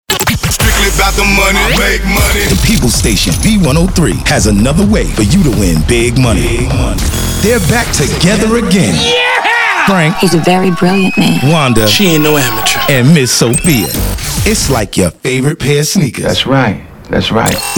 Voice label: radio